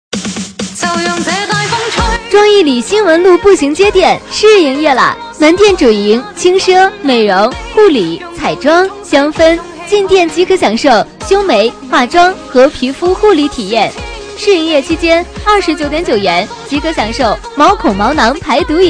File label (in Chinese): 女 促销; 妆艺里